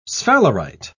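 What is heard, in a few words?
"sphalerite", British English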